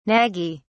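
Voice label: "naggy", British English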